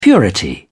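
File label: "purity", British English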